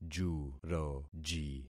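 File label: "juroji", concatenated syllables; Affixing experiment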